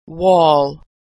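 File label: "wall", American English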